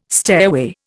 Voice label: "stairway", Indian